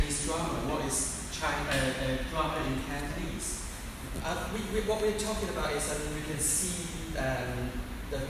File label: Cantonese Accents